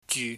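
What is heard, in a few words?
"jyu", Cantonese, low level tone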